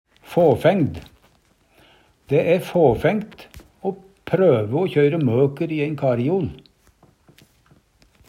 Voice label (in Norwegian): fåfængd, Numedalsmål; Dæ æ fåfængt o prøve o kjøyre møker i æin kariol.; Adverb